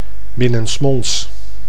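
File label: "binnensmonds", Dutch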